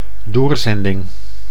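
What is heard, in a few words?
"doorzending", Dutch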